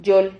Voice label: "yol", Silabas

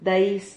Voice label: "dais", Silabas

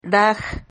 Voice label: "raj", Silabas

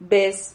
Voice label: "vez", Silabas

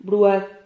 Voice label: "bruad", Silabas

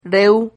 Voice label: "rehu", Silabas

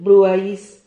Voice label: "bruais", Silabas

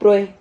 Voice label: "prue", Silabas

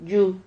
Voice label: "llu", Silabas

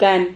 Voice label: "kan", Silabas

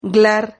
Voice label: "glar", Silabas

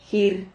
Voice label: "jir", Silabas

